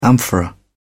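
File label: "amphora", British English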